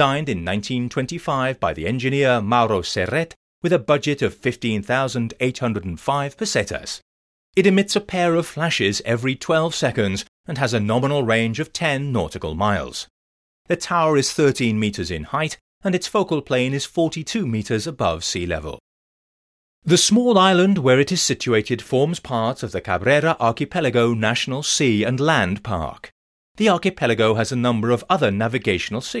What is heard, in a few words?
lighthouse audioguide; na forada